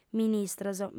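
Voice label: "ministros" Sardinian, female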